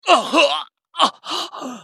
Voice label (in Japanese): ウィザード男･ダウン